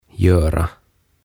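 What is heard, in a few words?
"Göra", Swedish; Tehdä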